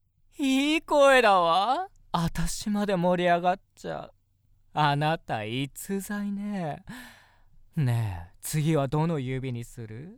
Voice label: male